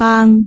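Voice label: "baang3", Cantonese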